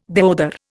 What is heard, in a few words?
"deodar", Indian